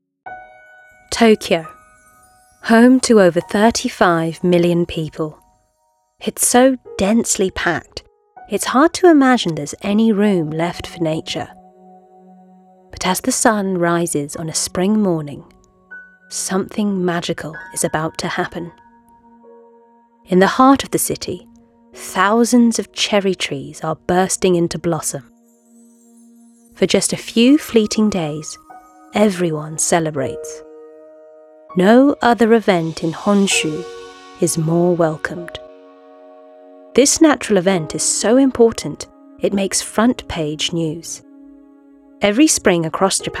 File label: narration voicereel